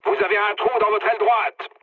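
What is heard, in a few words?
French right wing mood low; War Thunder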